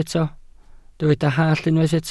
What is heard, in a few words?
"hallt", Welsh